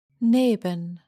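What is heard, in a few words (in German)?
"neben", German; themenwelt schule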